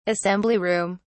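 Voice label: "assembly room", British English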